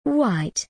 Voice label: "White", English

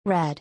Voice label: "Red", English

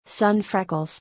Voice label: "Sun freckles", English

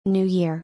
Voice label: "New Year", English; happy-new-year-in-english

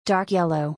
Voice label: "Dark Yellow", English

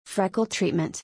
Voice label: "Freckle treatment", English; freckles-in-english

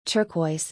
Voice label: "Turquoise", English

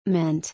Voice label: "Mint", English

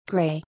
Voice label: "Gray", English

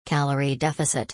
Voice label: "Calorie Deficit", English

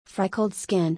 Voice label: "Freckled skin", English; freckles-in-english

